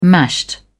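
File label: "mashed", British English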